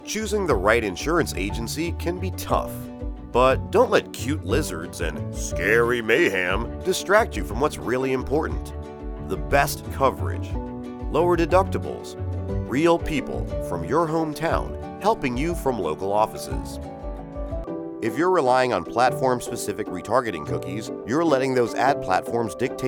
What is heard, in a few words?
Narration Explainer Whiteboard